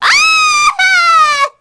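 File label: Happy, Korean; voices; heroes; Sonia; kings raid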